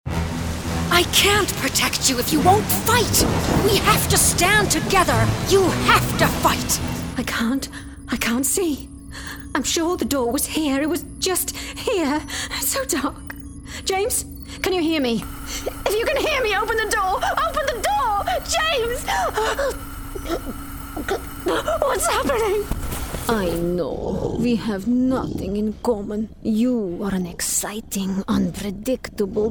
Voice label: Games Voices